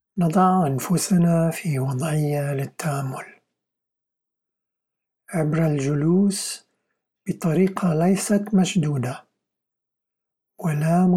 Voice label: Arabic breath sound body, guided meditations